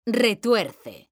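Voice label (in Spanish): "RETUERCE", Spanish; mekanta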